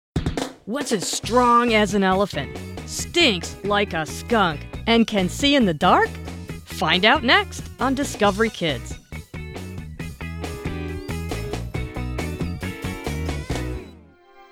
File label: Promo